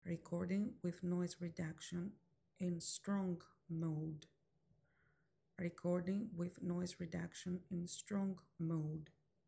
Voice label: Noise Reduction Strong Mode, wireless lavalier microphone; maono ai wave t5